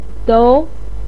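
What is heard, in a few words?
"dou" Mandarin Chinese, second tone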